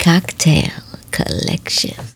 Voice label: sexy, Spoken; Kocktail K Spoken

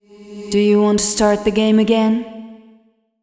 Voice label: fem spo, Vocals; Mutekki Media; Diamond Vocals 2

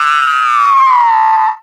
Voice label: VOX, Drum & Bass, 160 BPM; Ueberschall; Drum & Bass Resonance

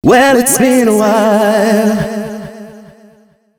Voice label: male, Dance Ultra Voice hooklines; Mutekki Media; Dance Ultra Voice VOL-1

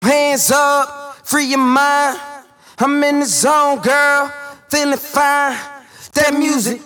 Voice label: Vocals; Vengeance - Essential Clubsounds Vol.4

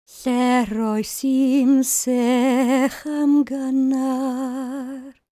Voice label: WELSH F, World Voices

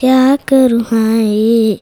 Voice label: CHILD VX, Indian Traditions

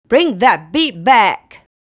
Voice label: "Bring That Beat Back", vocal phrases; Ultimate Vocals

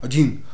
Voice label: "JUGO", SHOUTS; Best Service; Hyper Dance

